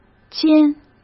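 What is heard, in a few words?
"兼" Mandarin Chinese; hsk; chinese-flashcards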